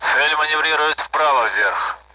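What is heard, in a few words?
Speech Sound Russian Common AWACS; target is maneuvering right-up; DCS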